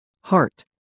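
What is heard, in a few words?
"heart", American English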